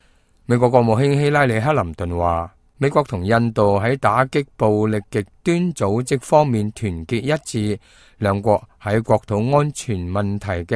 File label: cantonese, news